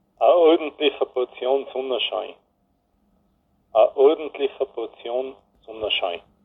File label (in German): Mundart; tannheimertal